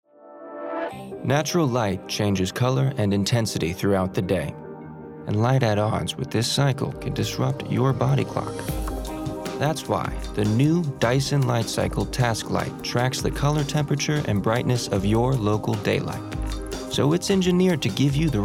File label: Commercial Voice